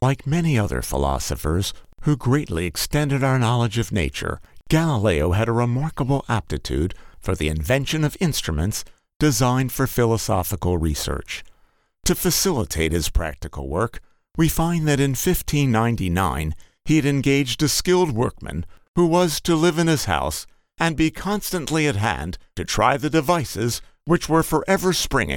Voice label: Audiobook